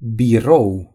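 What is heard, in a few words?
"office", Romanian; gcompris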